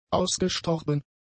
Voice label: "ausgestorben", German; adjectives